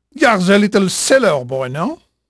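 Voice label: French male, voice; Pirates Of The Caribbean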